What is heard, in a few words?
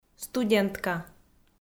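"студентка", Komi, female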